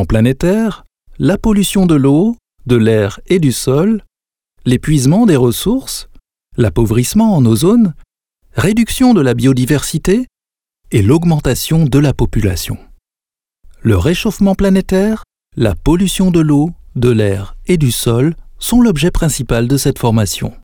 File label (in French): e-learning, Module interne de sensibilisation à la protection de l’environnement